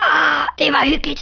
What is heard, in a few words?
"Byebye", Danish